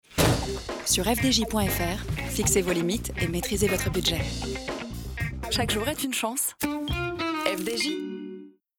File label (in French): Douche voix seule